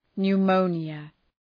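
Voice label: "pneumonia", English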